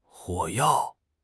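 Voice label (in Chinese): "火药", Mandarin Chinese; HSK6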